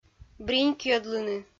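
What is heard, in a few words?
"бринькйӧдлыны", Komi, female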